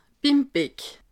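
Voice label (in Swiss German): "beck", Bas-Rhin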